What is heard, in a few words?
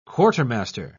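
"quartermaster", British English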